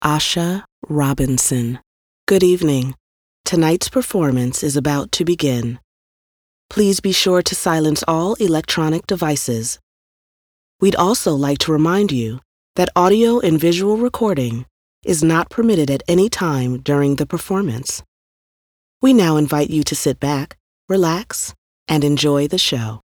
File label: Announcer